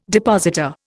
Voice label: "depositor", Indian